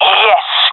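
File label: cheer, female; player vo jungle fem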